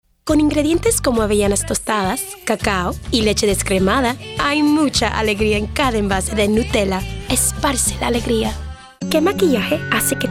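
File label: Spanish, commercial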